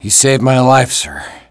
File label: impressed; dialogue; mohaa